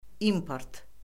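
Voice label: "import", Azerbaijani; Orfoqrafiya Lüğəti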